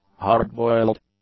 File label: "hard-boiled", English; adjectives